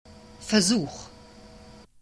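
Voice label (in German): "Versuch", German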